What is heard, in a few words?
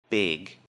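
"big", English; Describing Clothes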